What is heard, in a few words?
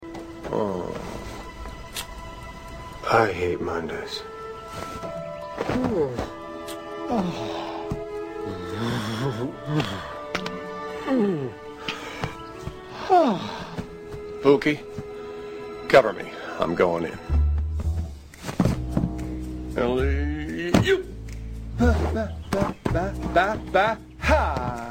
voiceovers